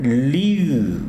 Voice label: "blanco", tsesungun